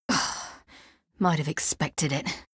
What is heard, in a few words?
Female; Skyrim Special Edition; Dawnguard Beleval